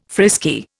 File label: "frisky", Indian